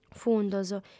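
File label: "fundos", Sardinian, female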